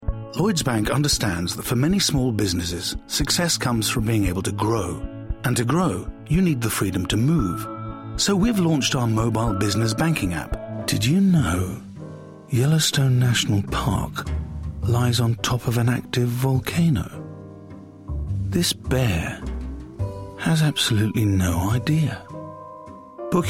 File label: COMMERCIAL VOICE REEL; RADIO COMMERCIALS